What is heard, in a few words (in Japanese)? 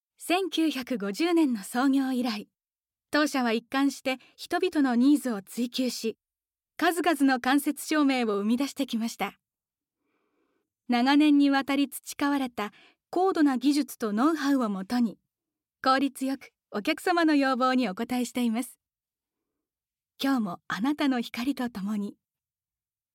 ナレーション